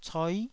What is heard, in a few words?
"coi9", Taiwanese